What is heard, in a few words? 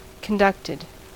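"conducted", American English; pronunciations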